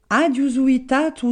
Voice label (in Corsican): aghju suvitatu, Gravona (centre) et Rocca (sud); j'ai suivi